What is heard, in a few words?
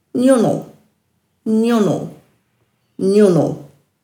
"njunil", Dhurga